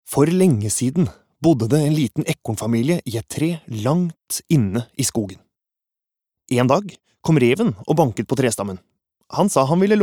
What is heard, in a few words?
no childrens book